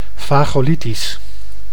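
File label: "vagolitisch", Dutch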